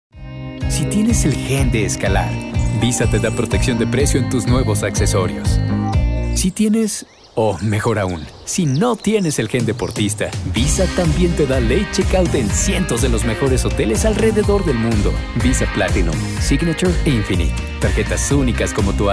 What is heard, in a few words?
Male Spanish